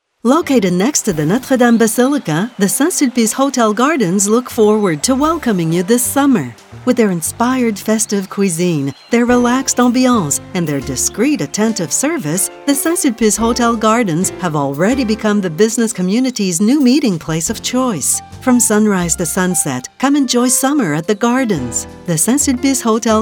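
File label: Canadian English, female, narration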